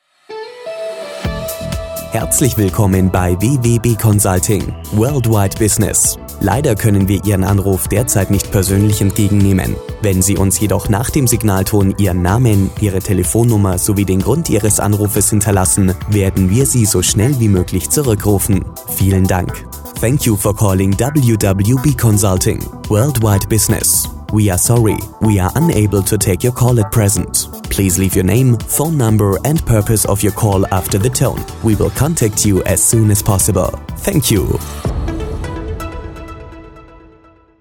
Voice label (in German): Ansage DE maennlich mit Musik